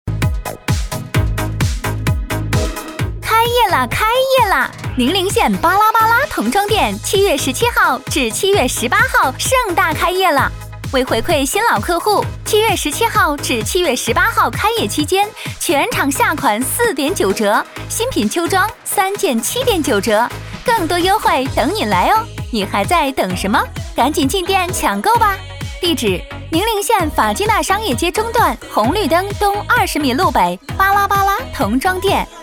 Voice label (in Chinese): 开业 促销